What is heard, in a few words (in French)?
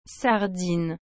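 "sardine", French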